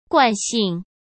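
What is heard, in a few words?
"惯性", Mandarin Chinese; hsk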